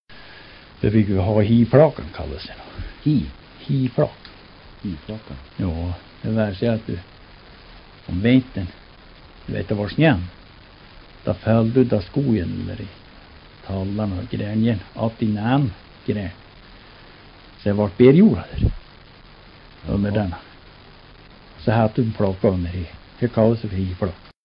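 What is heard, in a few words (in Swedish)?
Burträsk Västerbotten, dialekter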